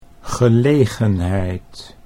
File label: "gelegenheid", Dutch